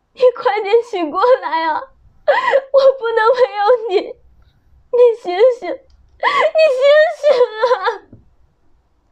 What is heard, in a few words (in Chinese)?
日常戏感练习悲痛欲绝